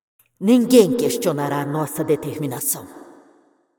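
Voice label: Brazilian Portuguese, assist; Karma; bloom minigame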